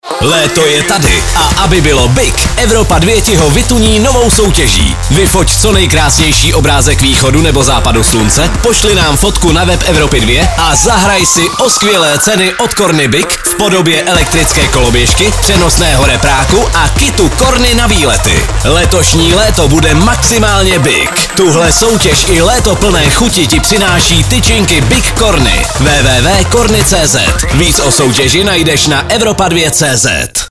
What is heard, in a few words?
promo; Soutěž s CORNY o parádní výbavu pro Tvé BIG léto